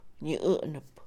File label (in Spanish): Mixe, Mujer; acusar; INALI